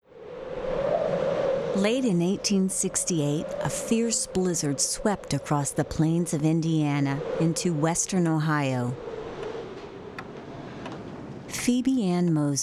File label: narration; annie oakley